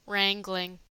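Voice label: "wrangling", US English; pronunciations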